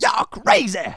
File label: "Stupid", speech; Soul Man